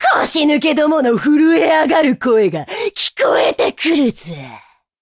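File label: level up, Japanese; cookie run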